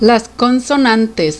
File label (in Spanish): "consonantes", Spanish